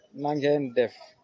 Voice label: hello, wolof; Na ngeen def

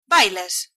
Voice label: dance, galician

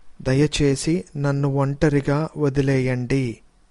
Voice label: leave me alone, telugu

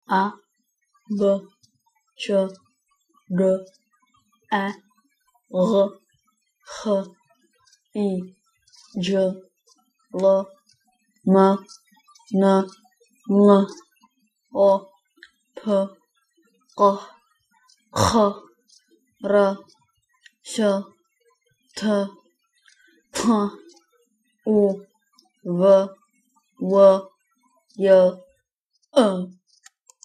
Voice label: alphabet klingon

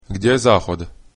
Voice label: toilet, croatian

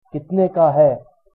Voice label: how much hindi

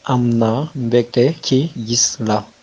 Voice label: meet, wolof

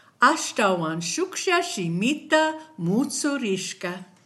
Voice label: one language, kichwa

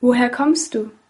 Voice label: where from, german

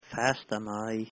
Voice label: good evening, manx

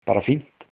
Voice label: fine, icelandic

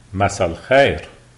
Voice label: good evening lebanese, arabic; Masa el khayr

